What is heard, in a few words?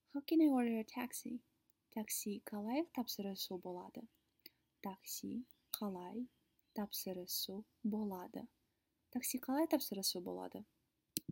Kazakh How can I order a taxi